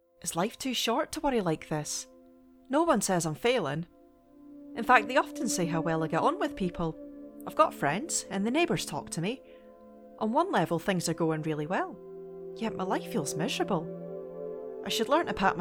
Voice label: voice acting reel